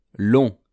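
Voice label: "long", French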